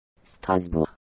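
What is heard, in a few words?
"Strasbourg", French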